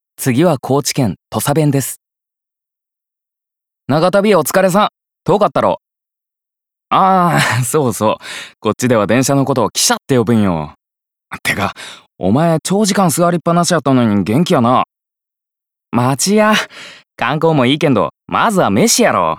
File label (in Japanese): 方言